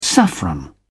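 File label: "saffron", British English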